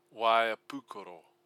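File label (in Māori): "waea pukoro", Māori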